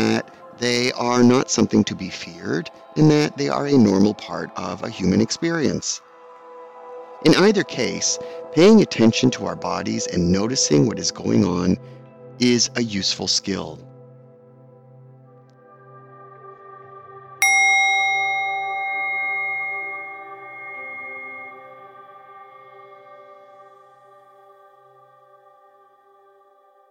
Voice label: Sleep Traditional Body Scan Meditation

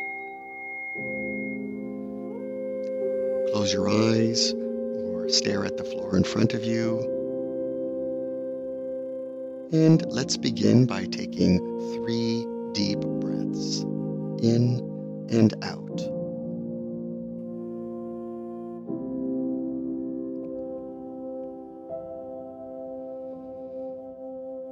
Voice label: Self Compassion Meditation

